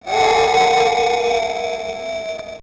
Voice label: Voice Wolf; Altered Beast; Sega; Megadrive-Genesis